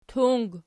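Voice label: "tung" nominative, Archi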